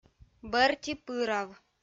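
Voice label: "бӧрти пырав", Komi, female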